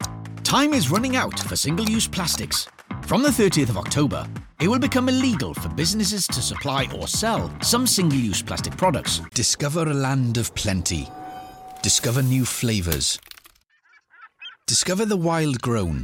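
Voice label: English UK, Commercial; GoLocalise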